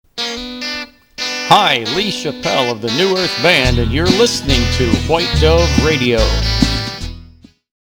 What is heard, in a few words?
Station ID with music